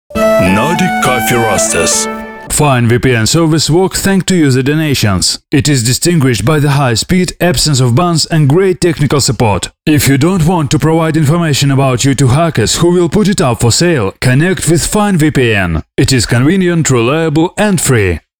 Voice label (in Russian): anglijskij